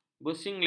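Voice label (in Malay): "bersinglet", Malay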